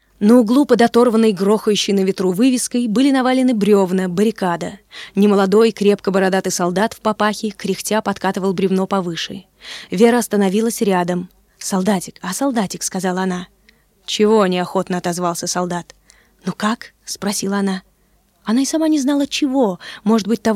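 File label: Russian, female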